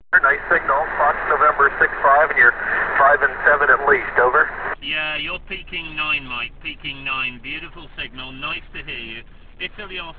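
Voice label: amateur radio contact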